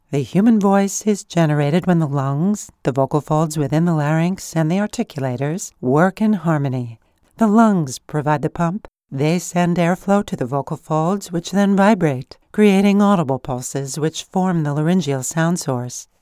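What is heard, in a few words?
medical, voiceover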